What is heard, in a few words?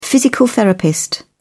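"physical therapist", British English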